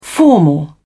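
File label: "formal", English, British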